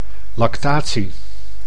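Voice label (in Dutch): "lactatie", Dutch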